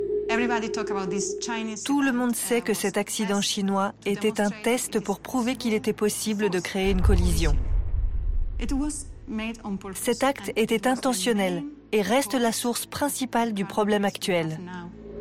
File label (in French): Documentaire; Les Debris Spatiaux